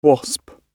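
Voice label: "wasp", British English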